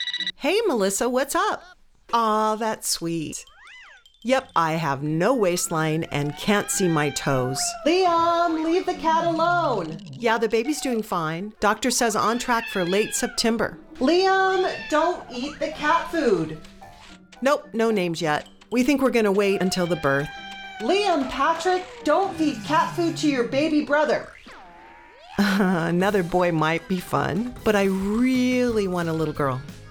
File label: side of phone call